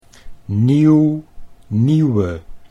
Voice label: "nieuw nieuwe", Dutch